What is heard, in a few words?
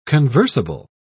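"conversable", British English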